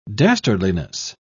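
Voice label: "dastardliness", English, British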